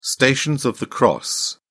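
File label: "stations of the cross", British English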